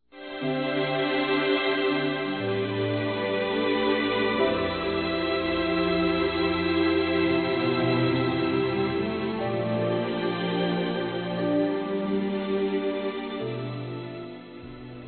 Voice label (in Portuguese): Natal Neutro Masculino; Telemensagens; Natal e Ano novo